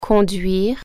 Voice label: drive, french

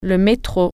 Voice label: "subway", French

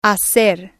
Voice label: "hacer", spanish

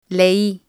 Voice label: "lei", spanish

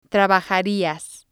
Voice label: "trabajarias", Spanish

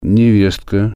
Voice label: daughter in law, russian

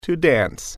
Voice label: "dance", english

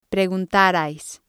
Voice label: "preguntarais", spanish

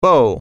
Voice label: "bow", English

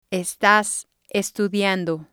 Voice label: "estas estudiando", spanish

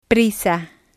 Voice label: "prisa", spanish

